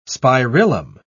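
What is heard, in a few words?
"spirillum", British English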